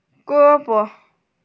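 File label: "ge1bo5", Mandarin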